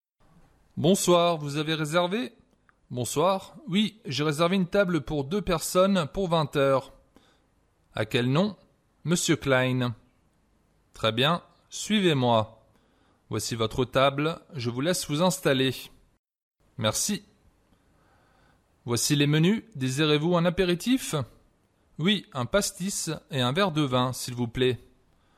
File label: Conversation, French